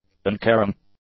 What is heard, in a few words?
"uncaring", English; adjectives